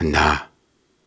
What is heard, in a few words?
Soft Syllables and Imaginary Words, Viking Vocal